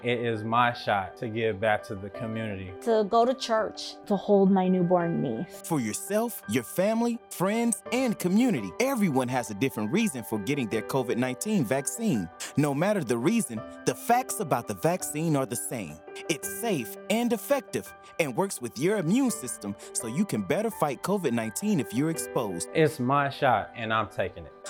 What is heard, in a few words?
Audio English Spot